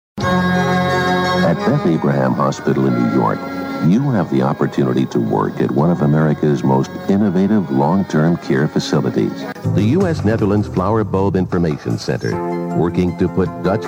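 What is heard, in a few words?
English, US, male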